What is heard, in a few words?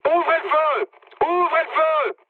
attack position mood high, speech fr; War Thunder